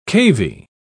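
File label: "cavy", British English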